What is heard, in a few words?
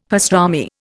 "pastrami", Indian; Dictionary